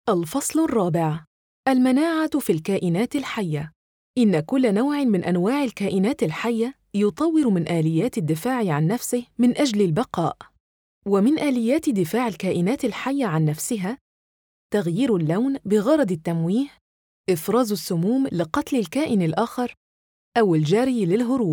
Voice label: arabic e-learning

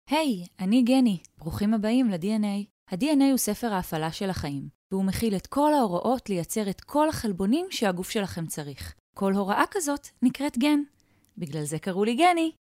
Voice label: hebrew commercial

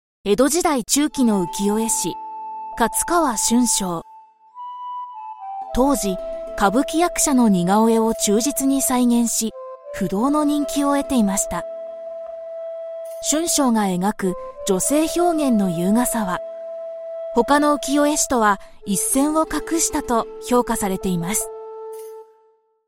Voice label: japanese explainer videos

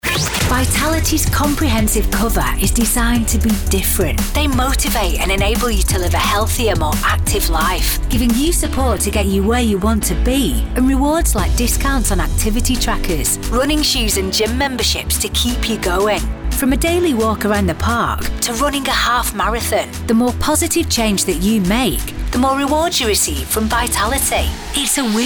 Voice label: english british promos; multi-award nominated northern (Lancashire/Manchester/Liverpool) Br

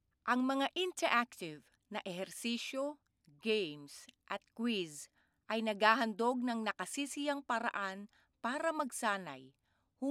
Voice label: tagalog commercial; Filipinas

